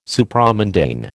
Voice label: "Supramundane", American